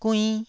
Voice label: "guinn1", taiwanese

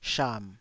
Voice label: "sham3", taiwanese

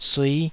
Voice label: "sui5", taiwanese Syllables

